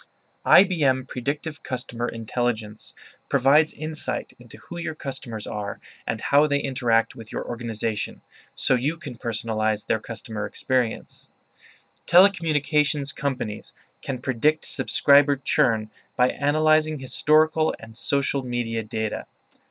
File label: Us English Narrowband Sample; watson stt; bluemix